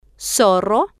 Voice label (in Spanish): "zorro", Spanish